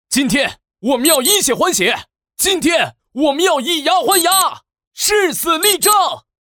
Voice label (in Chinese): 男 角色 革命烈士; 科技品质 大气浑厚; 男391-角色【革命烈士】